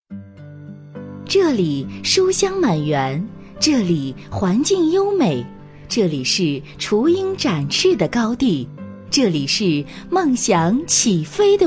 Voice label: 女4号专题; 星河实验幼儿园

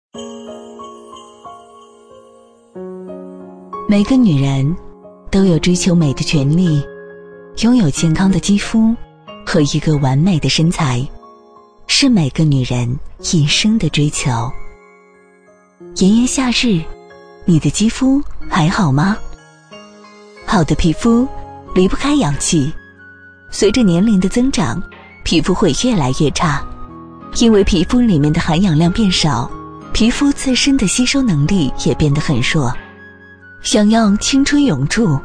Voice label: 女 专题; 聪明的女人总是懂得保养自己

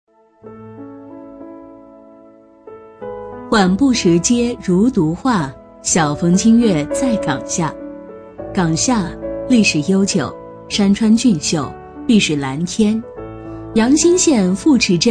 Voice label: 女38号解说; 港下

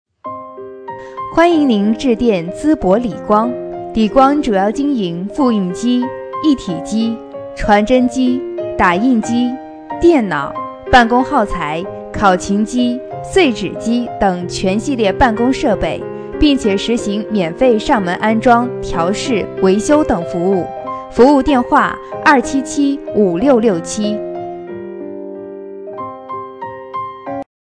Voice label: 话术 企业彩铃 温馨, 女

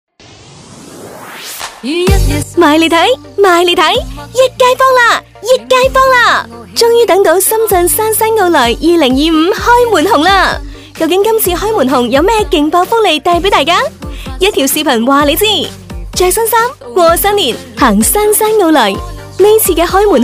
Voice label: 女 粤语促销; 杉杉奥莱

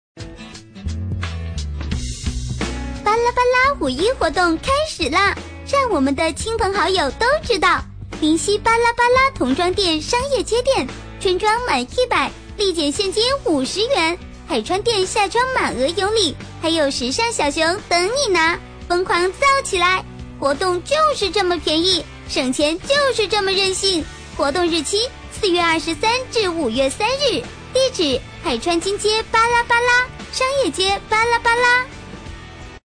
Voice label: 女 童声 促销

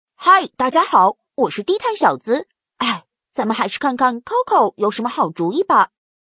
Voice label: 女 童音 俏皮男童

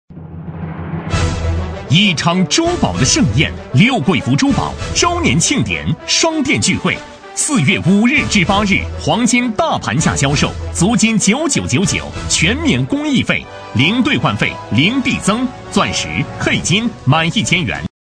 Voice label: 男 促销; 刘桂福珠宝

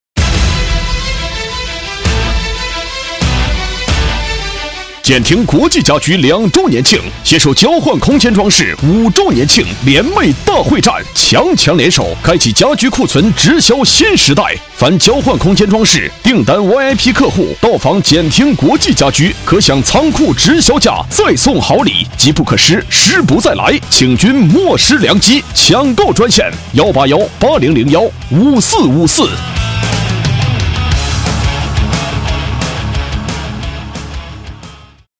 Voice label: 男 促销, 促销男配; 简汀国际家居两周年庆

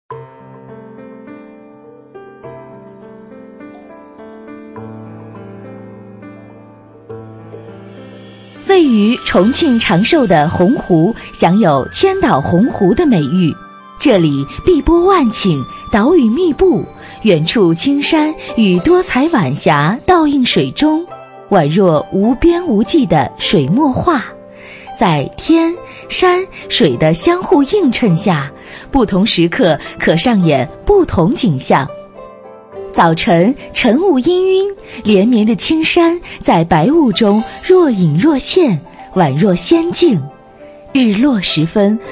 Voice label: 女4号专题; 洪湖有机鱼

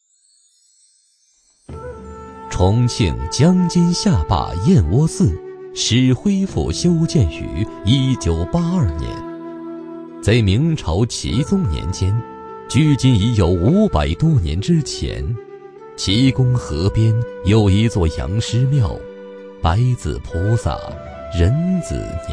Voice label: 男 记录片; 燕窝寺庙会

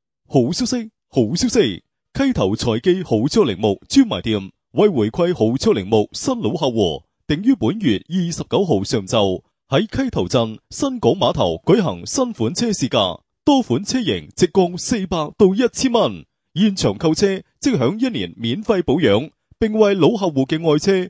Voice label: 粤语男 车行宣传

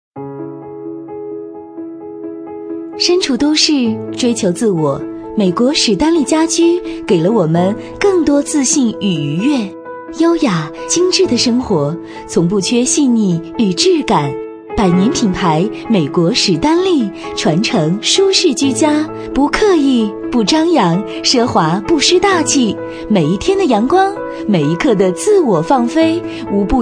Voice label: 女4号品质广告; 美国史丹利家居

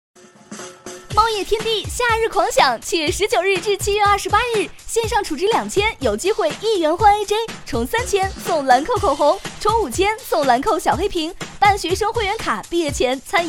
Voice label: 女 促销 商场大促

